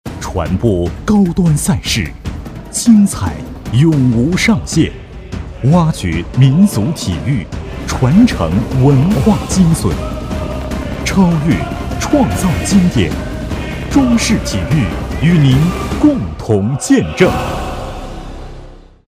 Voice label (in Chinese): 男 卫视宣传片, 浑厚磁性, 国语男声配音; 中视体育